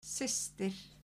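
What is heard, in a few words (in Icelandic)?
"systir", Icelandic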